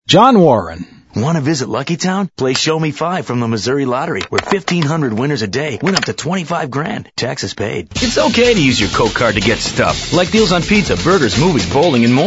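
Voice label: Male Talent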